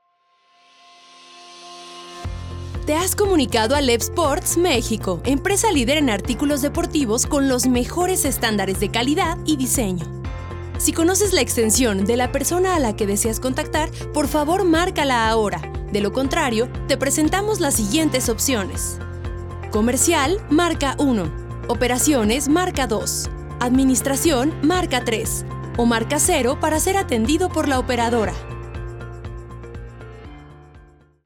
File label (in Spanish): bienvenida, conmutadores; lev sports